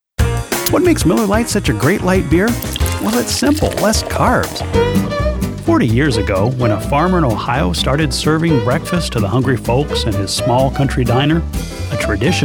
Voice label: Commercial Voiceover